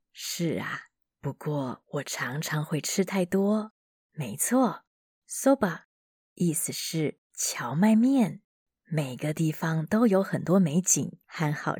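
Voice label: grandma in mandarin, Female; female voice in Mandarin Chinese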